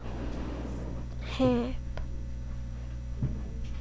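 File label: "hip", spoken word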